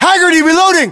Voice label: US inform reloading, battlechatter, english

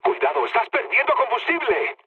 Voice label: tank damaged, mood med, speech; War Thunder

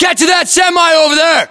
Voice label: US order cover semi, battlechatter, english; localized english

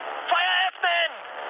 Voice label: attack position, mood high, speech ge; War Thunder

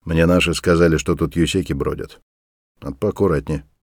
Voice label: mutter, voice; Escape from Tarkov

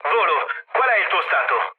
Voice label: chat, speech it; War Thunder

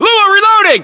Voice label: US inform reloading, battlechatter, english; localized english

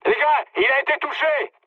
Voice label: aircraft destroyed mood med, speech fr

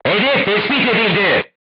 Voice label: voice message target lock, turkish

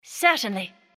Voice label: Neutral Yes; Civ5

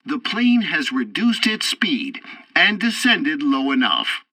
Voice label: landing near airfield, tutorial, english; War Thunder

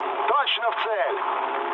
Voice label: bomb success, mood low, speech ru; War Thunder

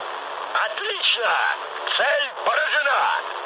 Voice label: bomb success, mood high, speech ru; War Thunder

